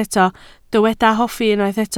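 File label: "hoffi" Welsh, spoken word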